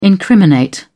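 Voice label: "incriminate", English, British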